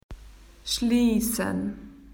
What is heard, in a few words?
closed, spoken AU; PictureDictionary